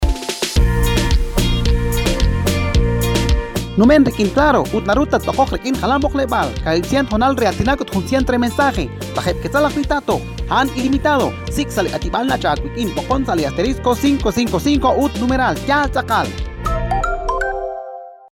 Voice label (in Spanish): Q eqchi Superpack Llamadas; CLARO